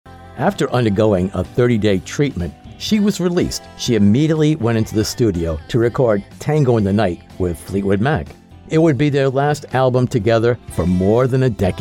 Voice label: Documentaries Music